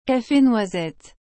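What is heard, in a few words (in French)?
"cafe noisette", French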